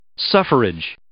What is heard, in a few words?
"suffrage", English